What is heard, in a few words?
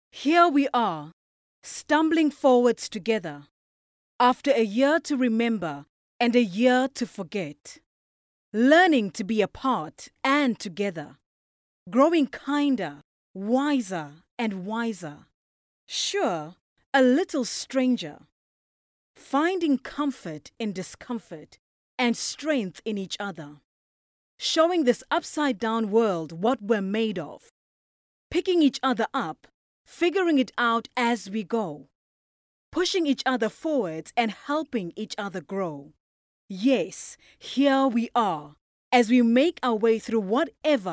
female african voice over narrative, african english